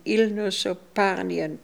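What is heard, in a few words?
"Ilnosoparnian", pronunciations; Fourth Way; Beelzebub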